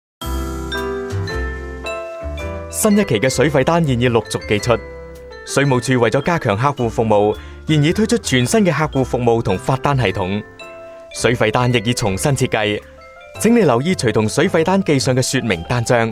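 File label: male Cantonese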